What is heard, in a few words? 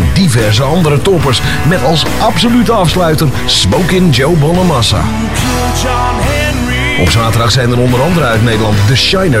Blues Radio Promo; Moulin Blues